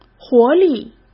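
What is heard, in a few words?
"活力", Mandarin Chinese; chinese flashcards; hsk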